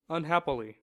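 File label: "unhappily", American English; pronunciations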